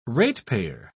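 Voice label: "ratepayer", British English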